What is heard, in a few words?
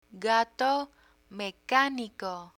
"gato mecanico", Spanish